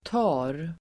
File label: "tar", Swedish